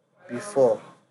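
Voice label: "before", Nigerian Pidgin, male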